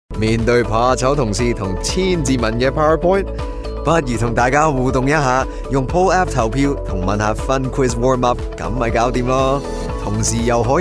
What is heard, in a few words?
Cantonese Sample, chinese cantonese; voice over